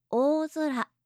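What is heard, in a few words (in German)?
"ozora", japanische Mädchennamen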